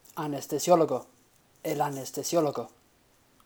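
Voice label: "Anestesiólogo", Spanish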